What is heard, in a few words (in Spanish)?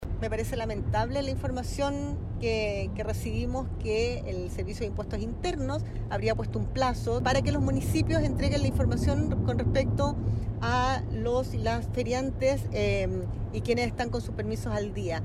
cuña